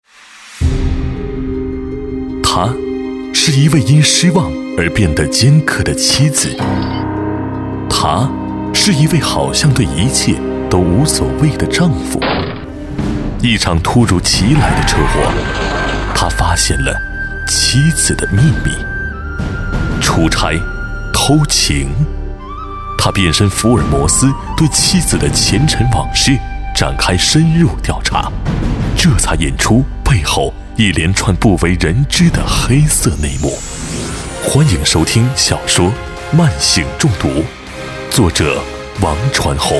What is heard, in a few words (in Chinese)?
男60号 节目包装; 《慢性中毒》; 交广主播